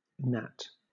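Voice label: "gnat", British English; pronunciations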